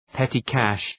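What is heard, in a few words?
"petty cash", English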